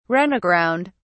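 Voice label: "run aground", British English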